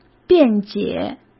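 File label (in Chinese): "辩解", Mandarin Chinese; hsk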